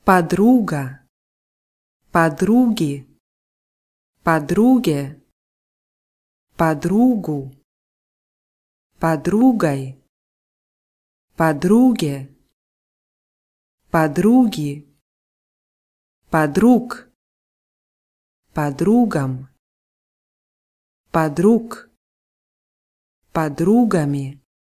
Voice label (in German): freundin russisch